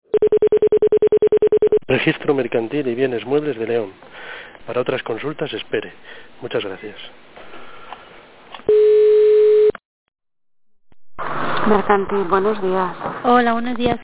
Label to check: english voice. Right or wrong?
Wrong